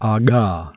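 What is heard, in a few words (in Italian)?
Implosive sonore Velare, consonanti non polmonari; IPA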